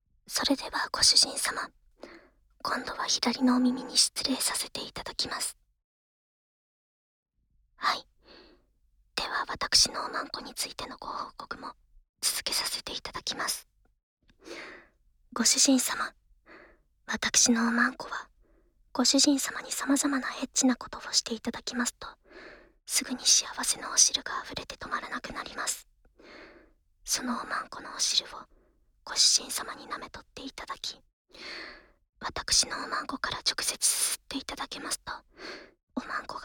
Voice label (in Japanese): 淫語耳かきしてください（左耳）《効果音なし》, ASMR